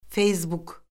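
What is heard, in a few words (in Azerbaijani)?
"feysbuk", Azerbaijani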